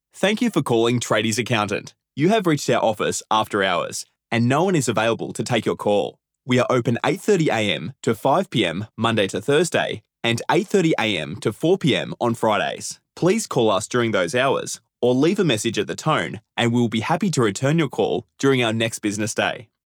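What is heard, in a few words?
Australian Male Voice Talent Phone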